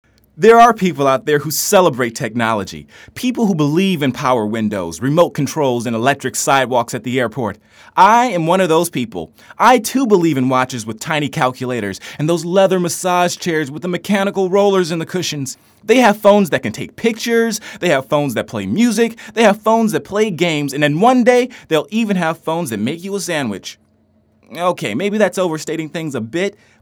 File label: Male Commercial